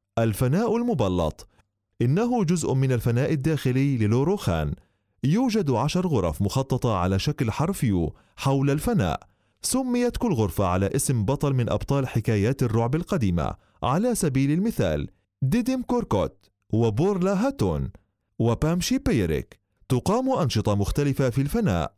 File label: arabic